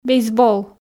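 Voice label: "бейсбол", Komi, female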